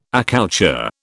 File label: "accoucheur", British